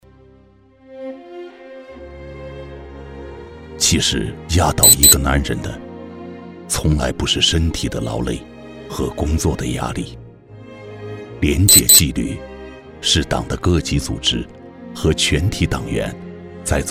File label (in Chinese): 男 独白 磁性质感